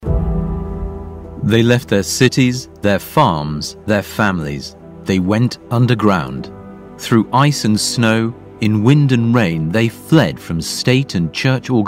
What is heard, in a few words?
documentary historical